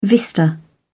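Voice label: "vista", English, British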